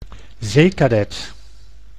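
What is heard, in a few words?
"zeecadet", Dutch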